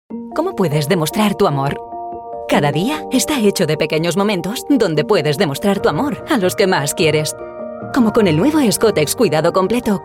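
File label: Spanish, European, female, Commercial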